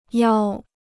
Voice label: "邀", Chinese

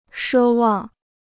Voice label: "奢望", Chinese

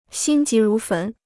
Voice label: "心急如焚", Chinese

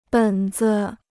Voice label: "本子", Chinese

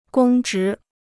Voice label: "公职", Chinese

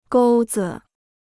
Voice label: "钩子", Chinese

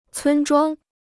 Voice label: "村庄", Chinese

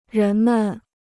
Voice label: "人们", Chinese

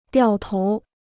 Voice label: "掉头", Chinese

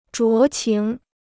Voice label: "酌情", Chinese

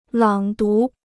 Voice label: "朗读", Chinese

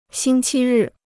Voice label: "星期日", Chinese

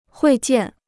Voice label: "会见", Chinese

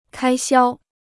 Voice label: "开销", Chinese

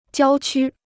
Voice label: "郊区", Chinese

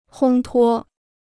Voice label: "烘托", Chinese

